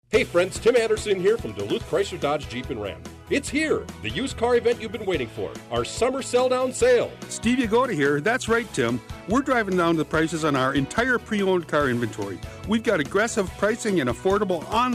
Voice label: radiospot; Duluth Dodge summer sell down